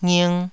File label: "nging2", Taiwanese